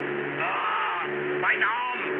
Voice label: damaged pilot, mood med, speech ge; War Thunder